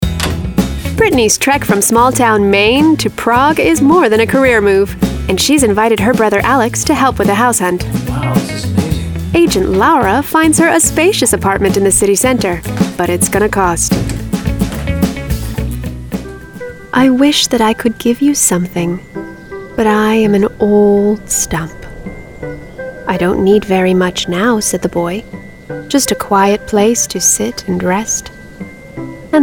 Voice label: Docu Narration